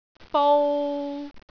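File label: "bou3", Cantonese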